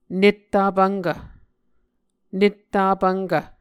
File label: "netta pvnkv", Muscogee